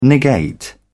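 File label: "negate", British English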